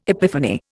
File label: "epiphany", Indian